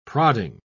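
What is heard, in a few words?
"prodding", English, British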